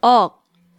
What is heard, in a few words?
"Eok", Korean